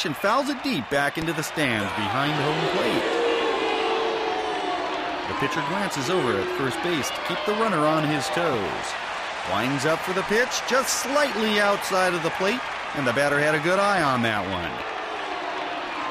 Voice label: Television; Baseball Game With Announcer, Crowd And Players. From Close.; sports